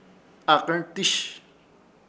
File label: "AKƗRTƗSH", Ishir Chamacoco; pelo largo